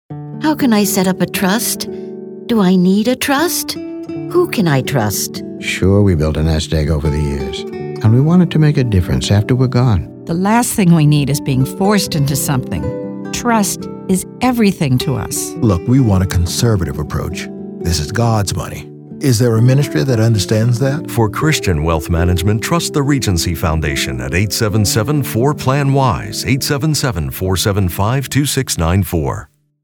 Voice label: Multi Voice Spot Sample, commercial production